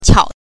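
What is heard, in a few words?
"qiao", neutral tone, Mandarin Chinese pinyin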